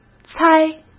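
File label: "猜", Mandarin Chinese; hsk; chinese-flashcards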